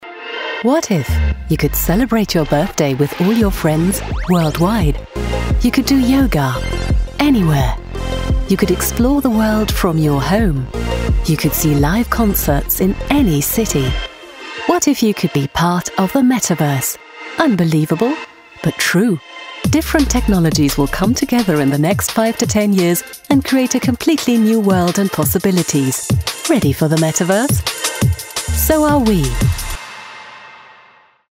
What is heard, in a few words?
EN Werbung; Allianz Global Investors